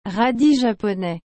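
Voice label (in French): "radis japonais", French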